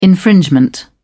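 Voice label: "infringement", British English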